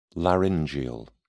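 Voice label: "laryngeal", British English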